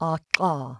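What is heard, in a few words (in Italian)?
Click Alveolare laterale ("laterale"), consonanti non polmonari; IPA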